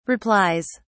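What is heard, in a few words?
"replies", British English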